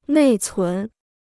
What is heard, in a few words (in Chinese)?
"内存", Chinese